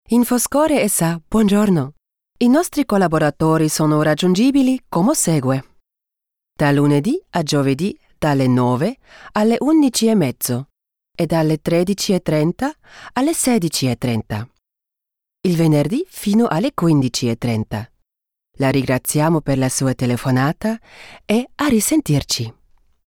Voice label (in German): ital